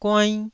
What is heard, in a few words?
"guainn1", taiwanese